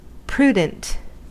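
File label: "prudent", US English; pronunciations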